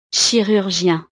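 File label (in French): "chirurgien", French; profesiones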